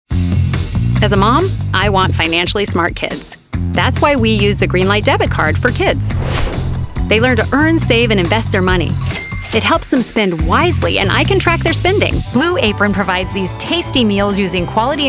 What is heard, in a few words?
Commercial